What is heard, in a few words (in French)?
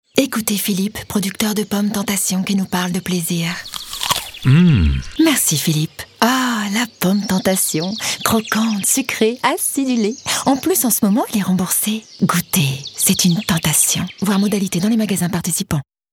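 tentation gourmande reveuse, voix off féminine; Pomme; Complice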